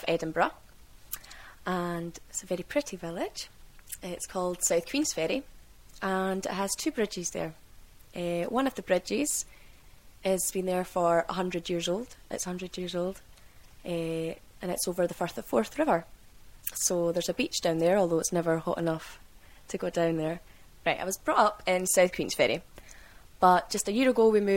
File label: scotland, dialects; speech